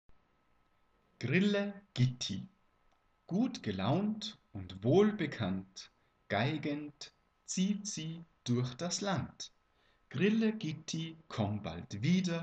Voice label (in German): gedicht grille gitti; corona material; nach ostern